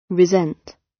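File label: "resent", British; Crime and punishment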